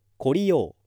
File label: male